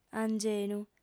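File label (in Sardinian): "angenu", lingua sarda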